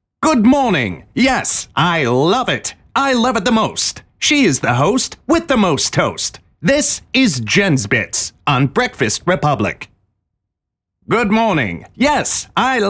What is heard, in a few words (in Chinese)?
外籍男 角色类